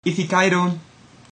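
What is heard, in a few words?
bon voyage, ancient Greek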